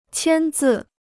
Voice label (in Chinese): "签字", Chinese